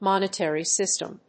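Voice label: "monetary system", English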